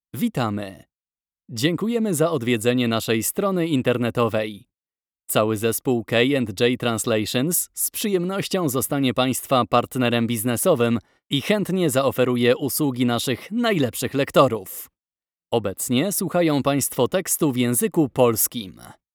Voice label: polish male

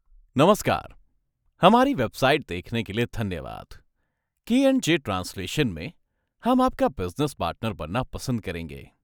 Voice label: hindi male

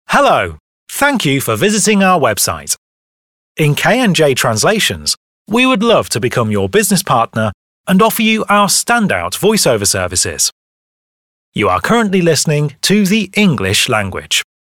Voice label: english male

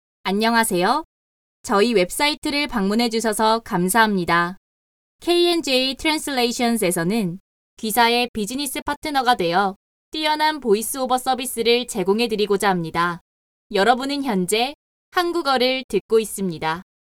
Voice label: korean female

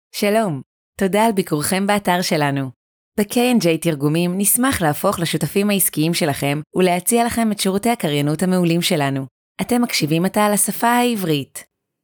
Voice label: hebrew female

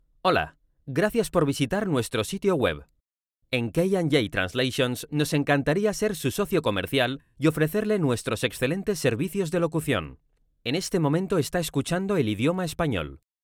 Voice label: spanish male

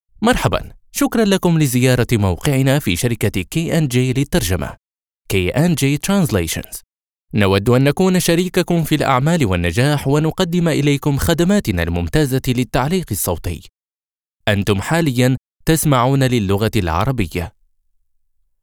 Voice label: arabic male

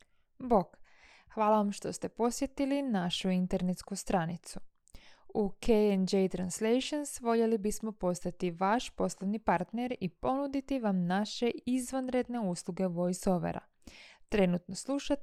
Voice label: croatia female

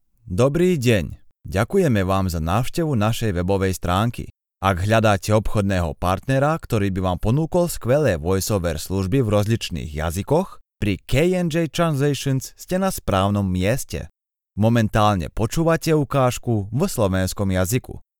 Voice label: slovak male

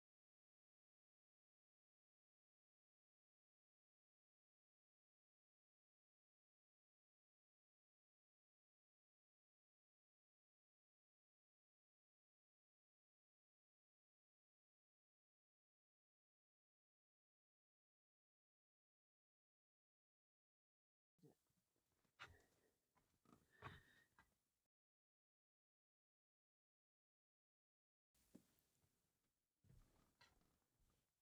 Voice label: english female

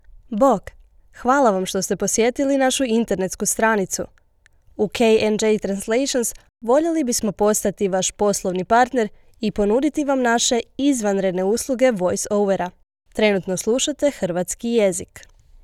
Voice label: croatian female

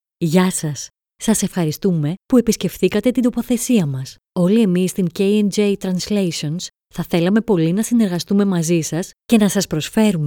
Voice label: greek female

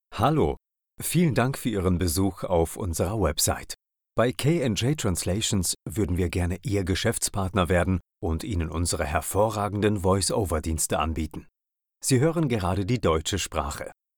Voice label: german male

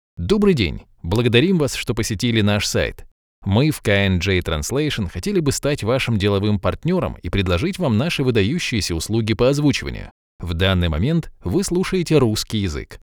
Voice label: russian male

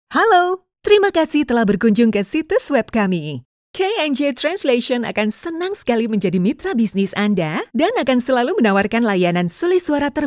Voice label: indonesian female

